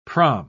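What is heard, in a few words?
"praam", English, British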